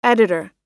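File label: "editor", American English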